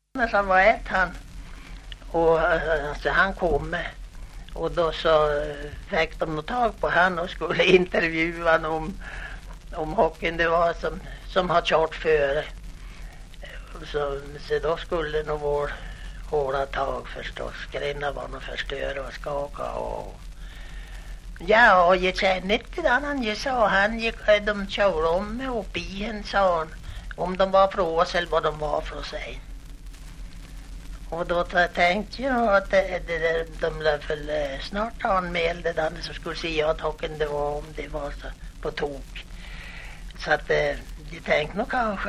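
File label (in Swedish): Häggenås Jämtland, dialekter; Folkminnen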